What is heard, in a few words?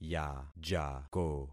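"yajako", concatenated syllables; Affixing experiment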